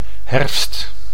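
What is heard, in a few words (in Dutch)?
"herfst" Dutch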